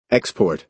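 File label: "export", American English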